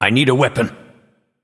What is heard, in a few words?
Male Nightborne ERROR NEED WEAPON